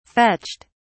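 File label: "fetched", English, British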